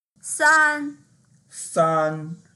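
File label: "san1", Mandarin Chinese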